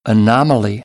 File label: "anomaly", American English